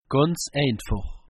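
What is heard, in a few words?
"ganz einfach", Alsatian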